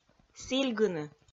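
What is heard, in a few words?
"сільгыны" Komi, female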